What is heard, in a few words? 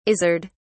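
"izzard", British English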